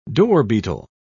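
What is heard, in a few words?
"dorbeetle", British English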